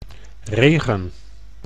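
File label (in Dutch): "regen", Dutch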